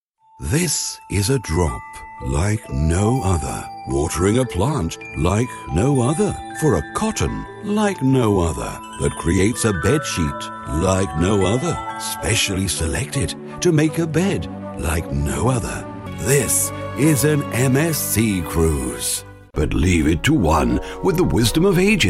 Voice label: Various Commercials

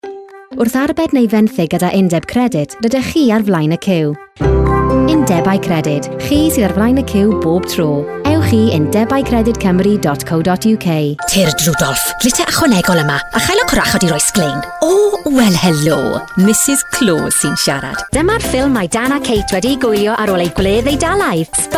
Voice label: Voice Talent Commercial Welsh Language

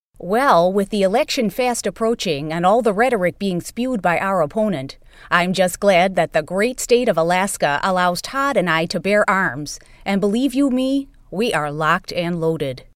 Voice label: Voice Talent Celebrity Voices; Sarah Palin

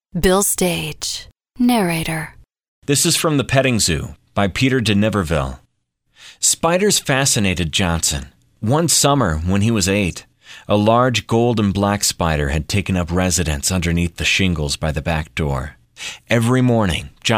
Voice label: Audiobook

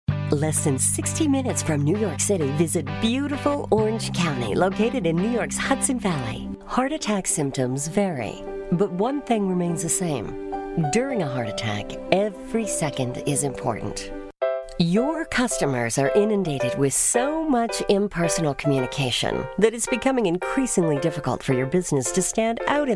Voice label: Voice Talent Commercial; Commercial Demo

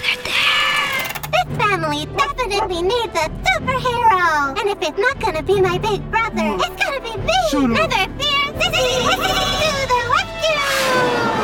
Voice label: Voice Talent Animation

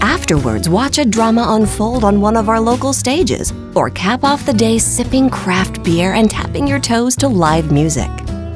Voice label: Voice Talent Commercial Art Walk

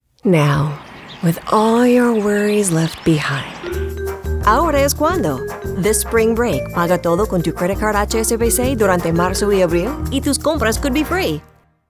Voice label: Voice Talent Spanish; HSBC

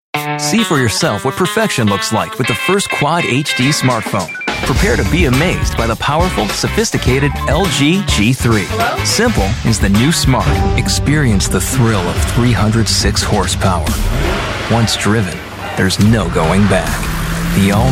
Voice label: Voice Talent Commercials

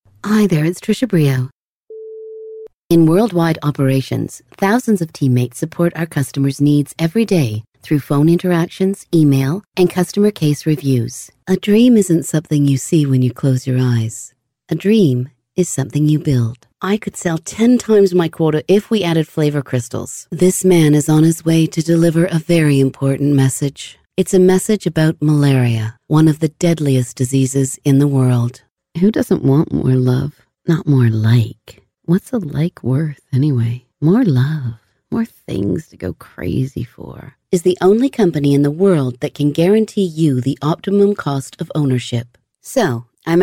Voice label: Voice Talent Various Voices

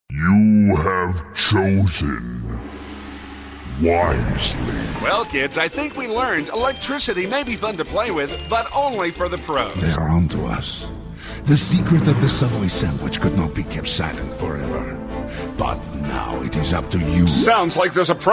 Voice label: Voice Talent Character and Animation

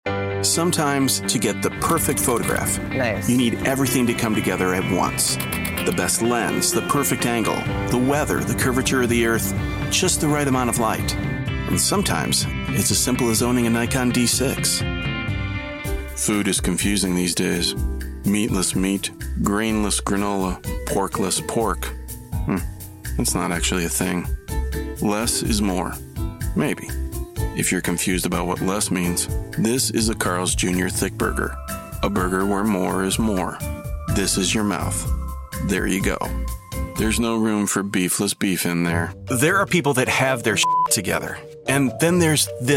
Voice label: Commercial; Commercial Demo

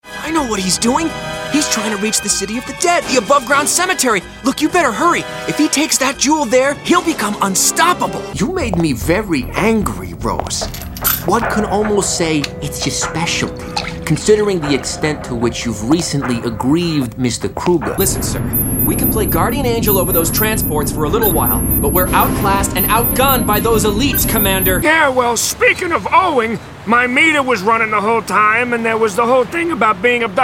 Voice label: Voice Talent Character Animation

